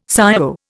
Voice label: "ciao", Indian